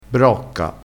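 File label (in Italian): "brocca", dialettale crespinese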